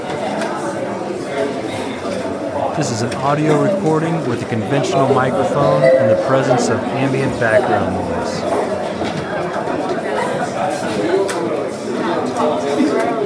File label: Conventional mic